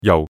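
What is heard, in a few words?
"jau4", Cantonese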